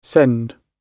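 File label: "send", British English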